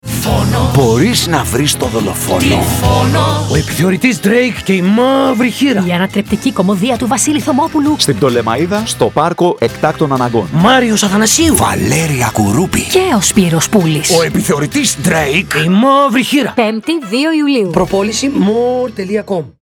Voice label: audio spot; ptolemaida